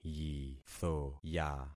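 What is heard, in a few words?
"yithoya", concatenated syllables; Affixing experiment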